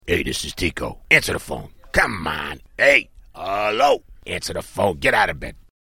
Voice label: Answer The Phone Hello, American, Ringtone